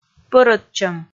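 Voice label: "пӧрӧдчӧм", Komi, female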